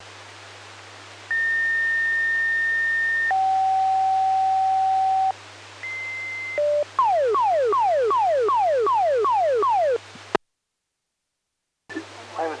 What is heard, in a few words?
Nassau County Fire Dispatch